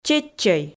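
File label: "чеччӧй", Komi, female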